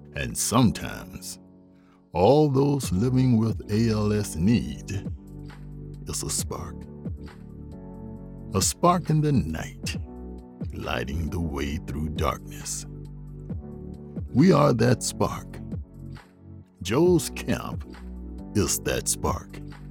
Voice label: HEALTH CARE NARRATION

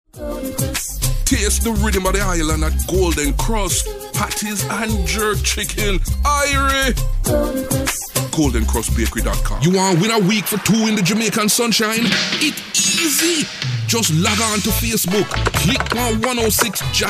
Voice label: Caribbean Voice sample

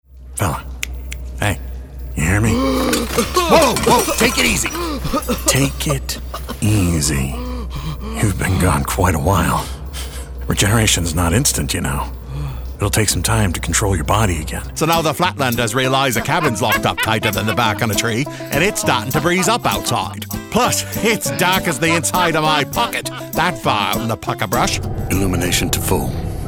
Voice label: Character

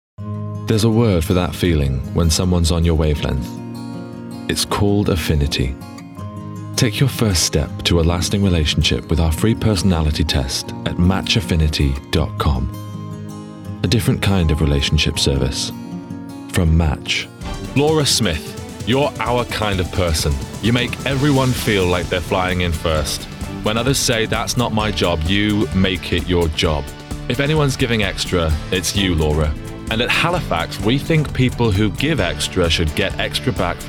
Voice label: Commercial

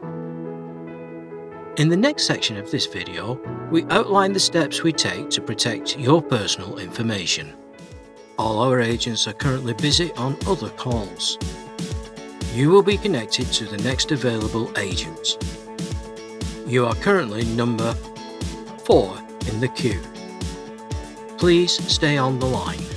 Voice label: Business Reel

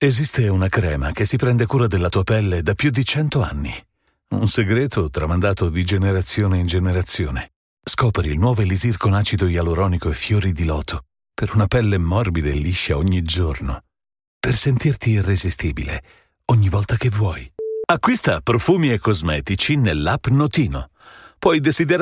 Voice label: Spot; English (North American)

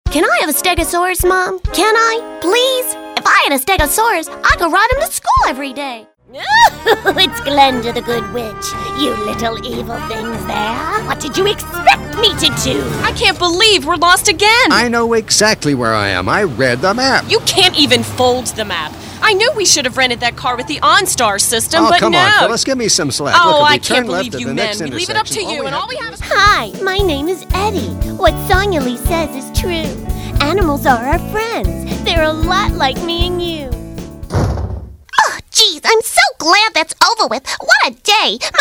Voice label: Character

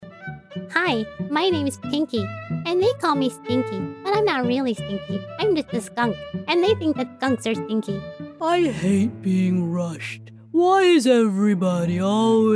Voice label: cartoon characters

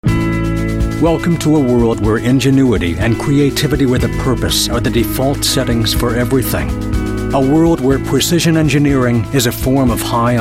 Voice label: Narration General

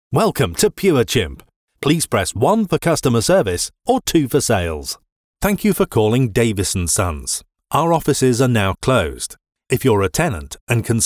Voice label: Telephony

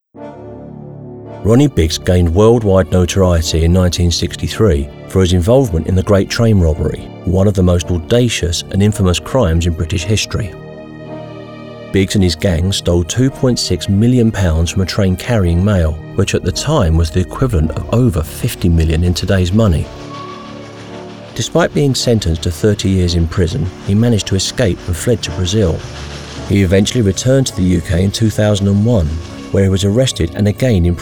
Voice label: Documentary

